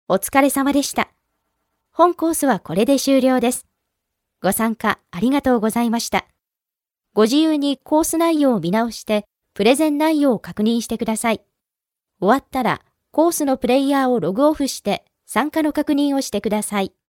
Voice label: Japanese elearning, female japanese